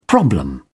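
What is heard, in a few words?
"problem", British English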